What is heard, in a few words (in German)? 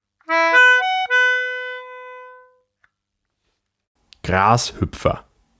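"Grashuepfer", German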